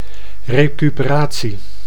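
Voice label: "recuperatie", Dutch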